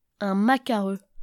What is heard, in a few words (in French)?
macareux, sounds-fr